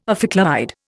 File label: "ophicleide", Indian